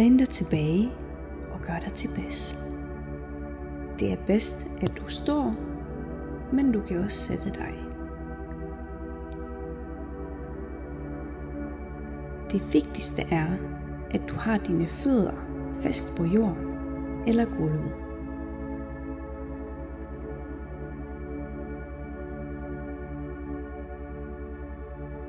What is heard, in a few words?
Grounding meditation guided